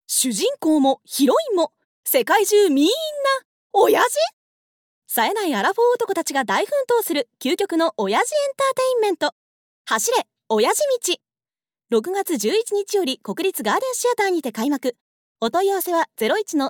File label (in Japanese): ナレーション